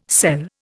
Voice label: "sell", Indian

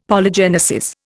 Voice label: "Polygenesis", Indian; Dictionary

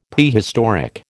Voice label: "Prehistoric", American

